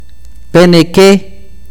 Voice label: rodilla, akateko

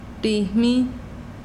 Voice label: pecho, mazahua

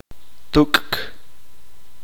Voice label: "empujar", uspanteko

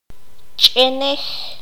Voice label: "piedra", Q'anjob'al

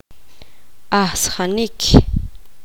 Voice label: poqomam nacer

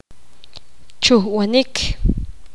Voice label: poqomam escupir

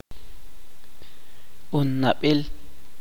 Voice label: "hijo de hombre", Chuj

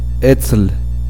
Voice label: uspanteko malo

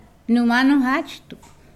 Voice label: Nawat palabras hermano mayor; pipil

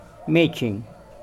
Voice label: Nawat palabras pescado; pipil

